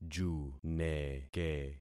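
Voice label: "juneke", concatenated syllables; Affixing experiment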